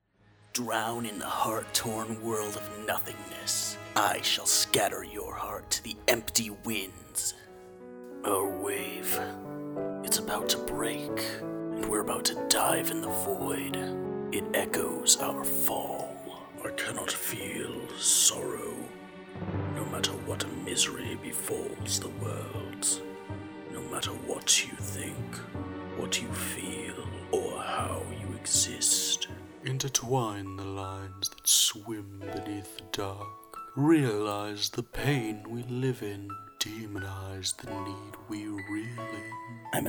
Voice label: Character Gaming